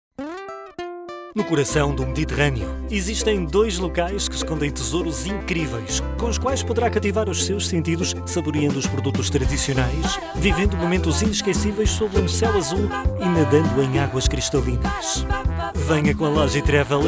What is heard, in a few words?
male portuguese commercial, portuguese european